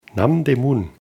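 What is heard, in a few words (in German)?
"Namdaemun", German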